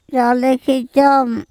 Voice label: "cOlekHecOm", andamanese